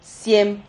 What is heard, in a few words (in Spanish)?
"siem", Silabas